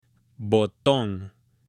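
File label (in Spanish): "BOTON", Spanish, male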